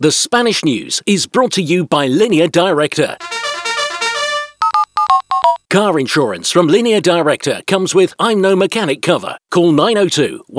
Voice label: CAR INTRO SPANISH NEWS; LINEA DIRECTA

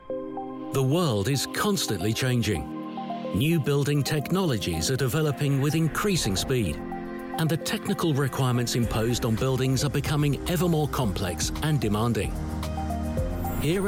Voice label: Corporate Voice Over; Lorenz Consult

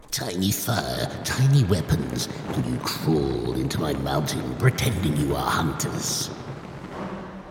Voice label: Characters Voices Golem Type Creature

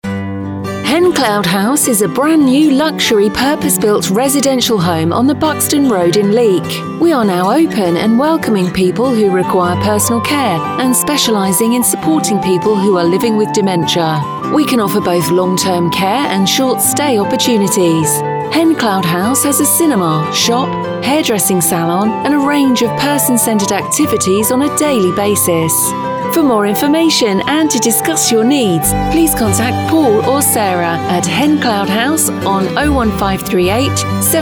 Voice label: Radio Advert; Moorlands Radio; Hen Cloud House

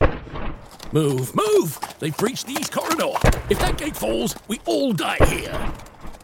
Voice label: Characters Voices Soldier on Horse Back

